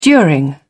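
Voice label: "during", British English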